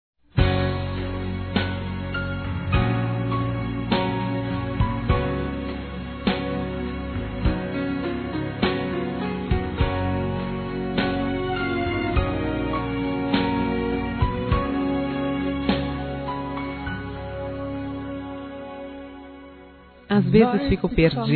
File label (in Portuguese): O TEU AMOR, Românticas, fem